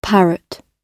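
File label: "parrot", British English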